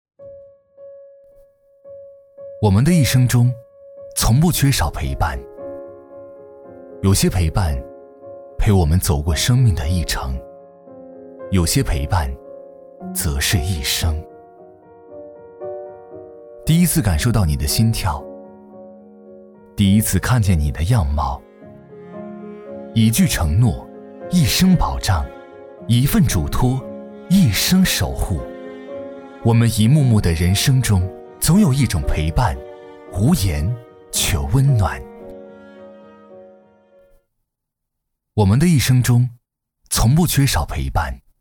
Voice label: 男 走心旁白 走心讲述; 中国医保

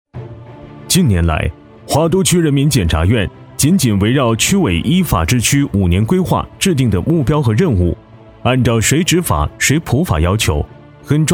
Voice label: 男-专题-检察院; 花都区检察院

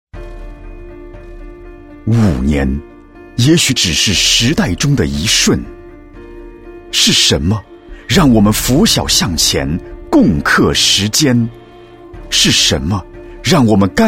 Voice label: 男 旁白 未来

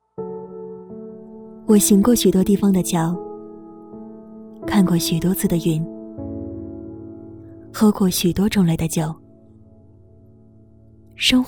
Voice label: 女 品牌广告类 品味纯粹

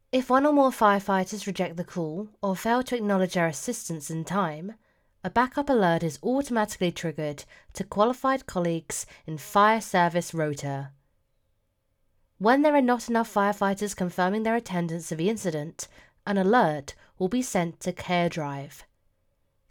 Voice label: 知性沉稳

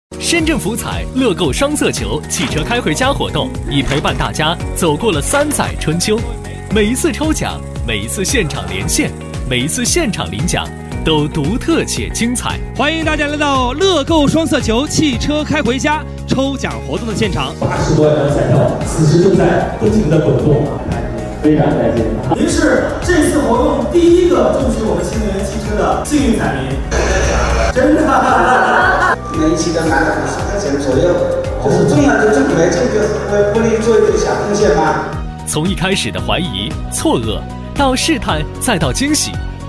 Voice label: 男 宣传片 福彩 双色球; 深圳福彩乐购双色球

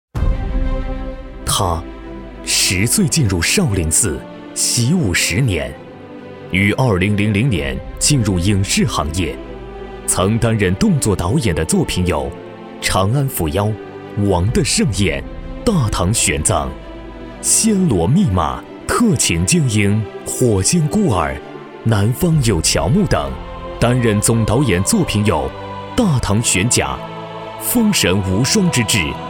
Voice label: 男 人物介绍

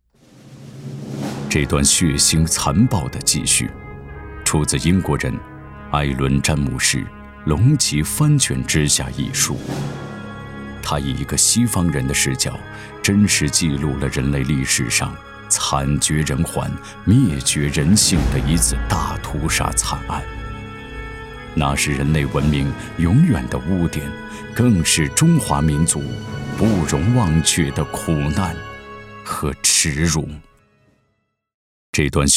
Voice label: 男 纪录片 历史讲述

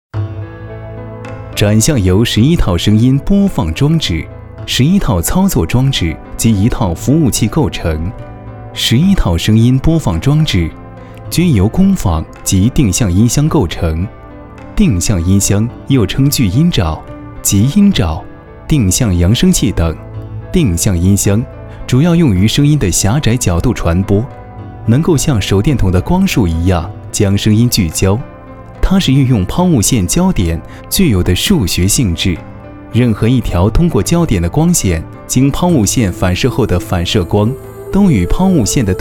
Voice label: 男 专题 产品 展览介绍类

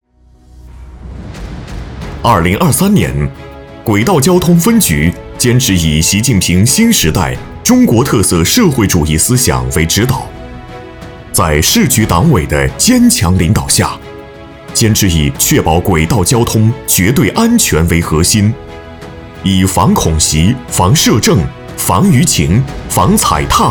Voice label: 男,专题宣传,大气有力度,工作总结汇报

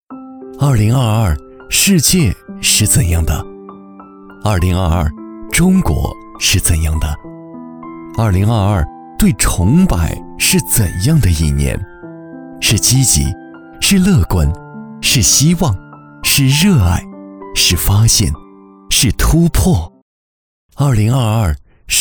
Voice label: 男 独白 温馨

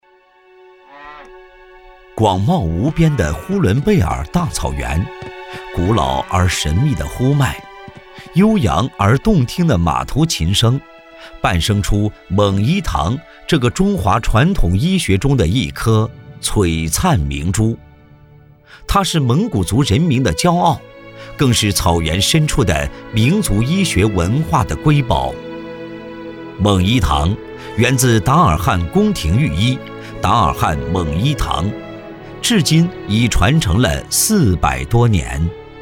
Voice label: 男 宣传片 舒缓; 蒙一堂

